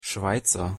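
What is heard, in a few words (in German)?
"schweizer", German; pronunciation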